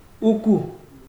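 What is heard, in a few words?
"uku", Nigerian Pidgin, male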